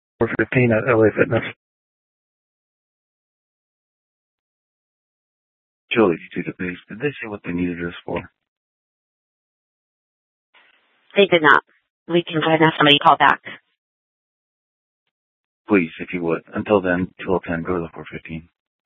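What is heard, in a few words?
Police Department North Dispatch, scanner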